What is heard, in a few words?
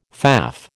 "faff", American